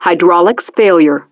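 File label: "Hydraulics Failure", English; Betty; DCS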